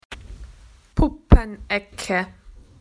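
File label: "dolls corner", Australian English; PictureDictionary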